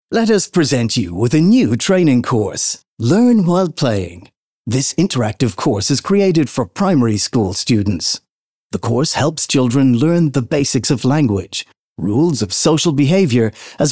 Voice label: english irish podcasts, voice actor